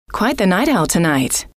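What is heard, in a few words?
quite the night owl tonight, female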